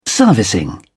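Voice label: "servicing", British English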